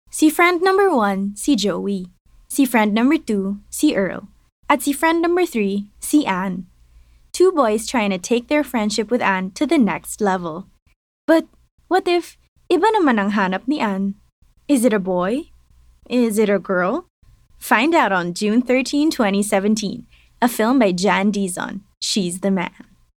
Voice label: Taglish (Engaging, Fun